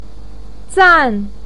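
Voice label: "zan4" Chinese syllable, pinyin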